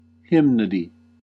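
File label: "hymnody", US English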